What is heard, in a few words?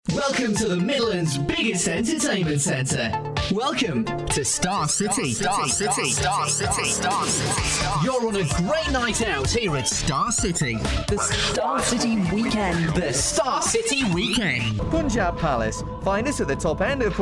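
English, British, male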